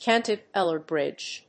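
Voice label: "cantilever bridge", English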